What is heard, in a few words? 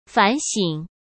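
"反省", Mandarin Chinese; hsk; chinese flashcards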